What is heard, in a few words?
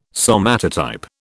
"somatotype", British